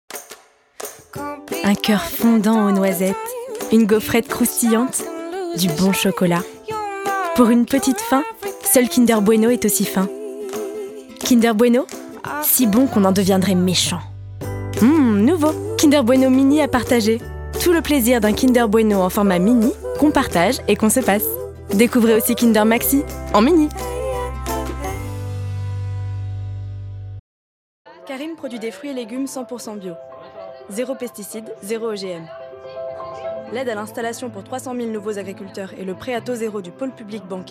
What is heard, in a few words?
French commercial narration fiction